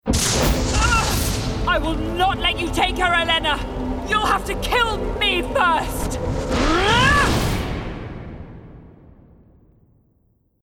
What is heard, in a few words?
Fighting Warrior Gaming